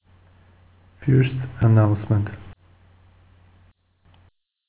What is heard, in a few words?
announcement; SIPclient